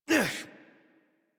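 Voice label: horse mount adult, English; Warframe; Duviri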